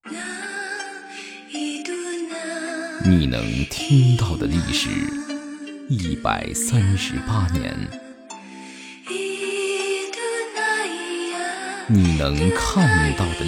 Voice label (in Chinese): B男 精品电视广告; 国窖1573